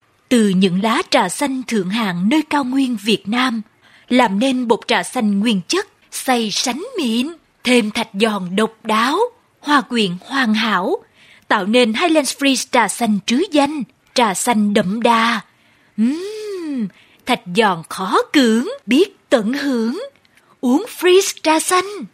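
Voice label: Vietnamese Voice Sample Female South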